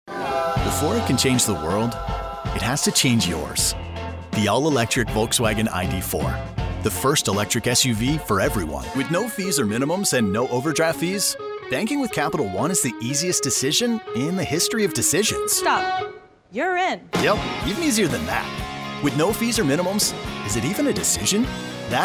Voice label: Commerical